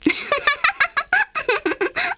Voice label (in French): FILLE; Mobiclic